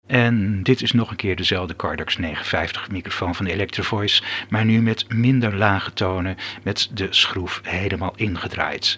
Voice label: Cardax NL no bass